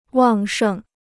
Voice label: "旺盛", Chinese

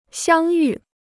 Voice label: "相遇", Chinese

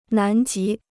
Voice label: "南极", Chinese

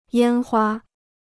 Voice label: "烟花", Chinese

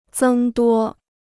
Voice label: "增多", Chinese

